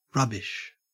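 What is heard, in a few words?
"rubbish", Australian English; pronunciations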